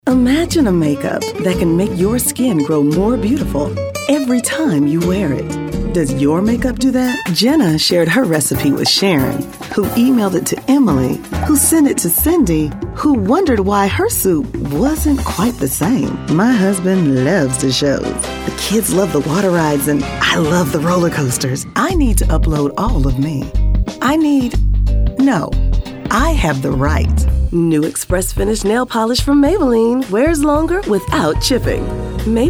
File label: Commercial